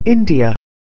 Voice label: "india", English